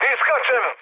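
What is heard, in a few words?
Serbian bailout mood med, speech; War Thunder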